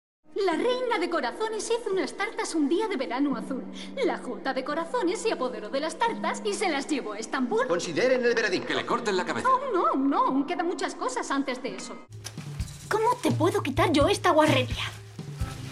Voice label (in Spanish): Doblaje mujer